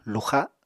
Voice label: "luxa’", Apatani; [luxaɁ] v. speak wrongly; syn. lug